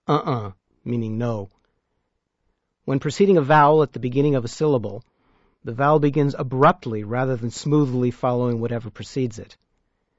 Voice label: The apostrophe, glottal stop, Klingon